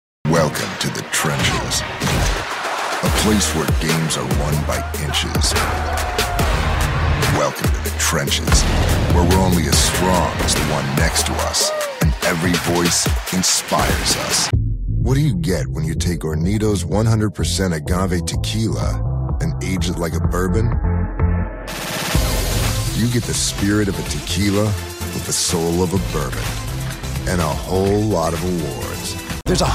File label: men commercial; Warm, rugged, and confident.